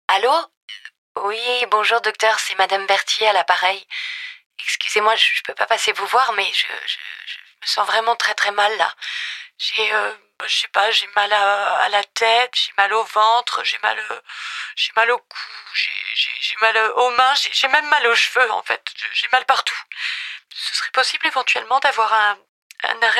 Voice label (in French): pub comedie; last minute